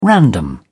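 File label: "random", British English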